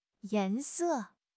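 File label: "yan2 se4", Mandarin Chinese; HSK2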